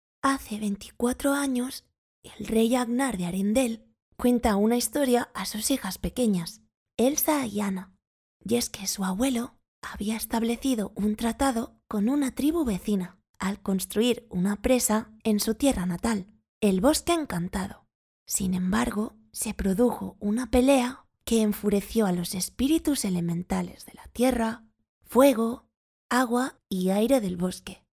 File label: voz niña spanish child voiceover